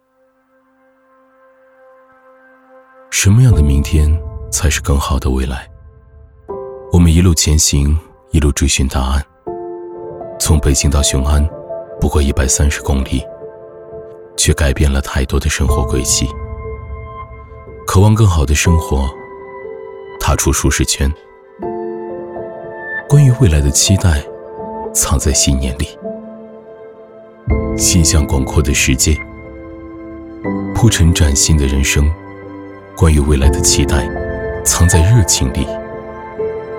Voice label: 男, 走心形象片

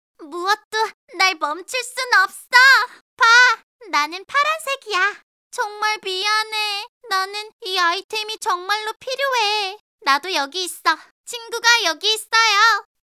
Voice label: 女童声

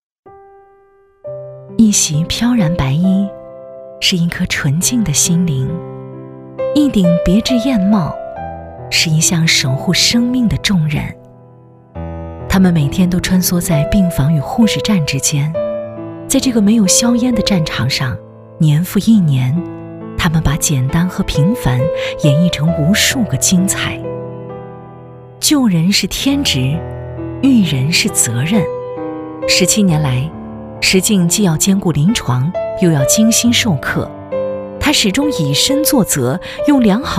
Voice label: 女, 专题

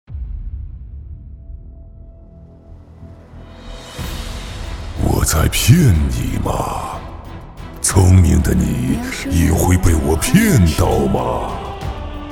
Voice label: 男, 演绎 妖怪 魔兽; 毒液

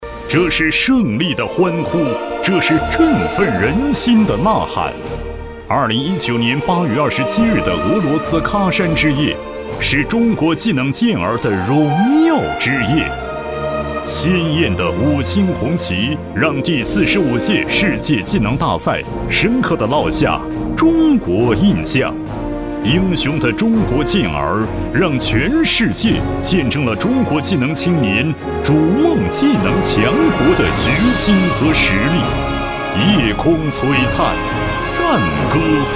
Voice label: 男 专题; 喀山

